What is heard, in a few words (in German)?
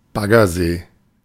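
"Baggersee", German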